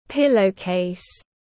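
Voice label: "Pillowcase", English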